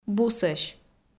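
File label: "бусӧсь", Komi, female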